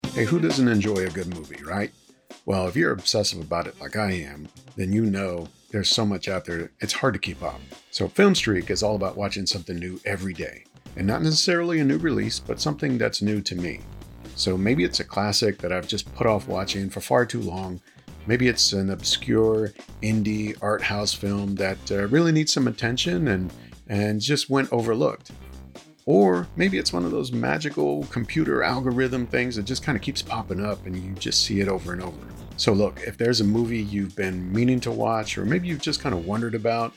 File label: Trailer